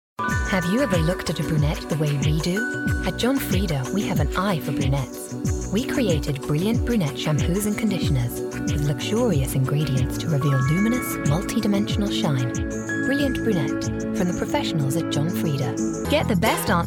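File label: English British, female, commercial; voice demos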